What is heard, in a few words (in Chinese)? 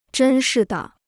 "真是的", Chinese